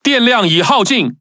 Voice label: Speech Alarms Sub Battery End, ZH TW; World Of Warships

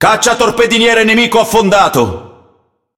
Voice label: It Speech Destroy Enemy Destroyer; World Of Warships

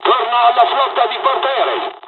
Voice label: flight, dialogs italian; midway jpn; War Thunder

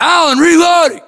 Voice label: US inform reloading, battlechatter, english

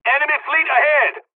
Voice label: dialog, dialogs serbian; War Thunder; midway

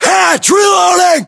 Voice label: inform reloading, US

